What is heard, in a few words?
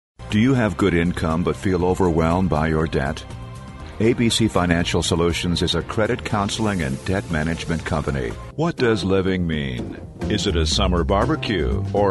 male US retail